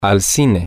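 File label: to the movies, spanish, male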